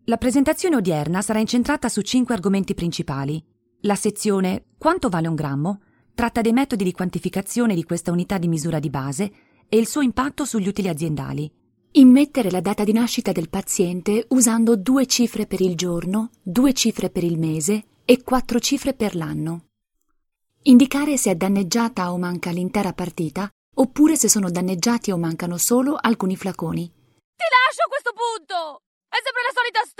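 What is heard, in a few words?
Italian, General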